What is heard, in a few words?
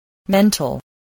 "mental", voice, spelling